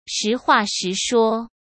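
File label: "实话实说", Mandarin Chinese; chinese-flashcards; hsk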